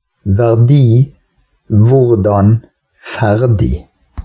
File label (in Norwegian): konsonant r rd bergen